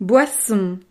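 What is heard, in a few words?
"boisson", French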